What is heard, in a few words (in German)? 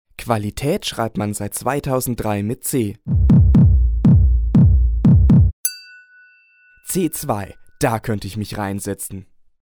Werbung Reminder